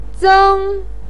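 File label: "zeng1" Chinese syllable, pinyin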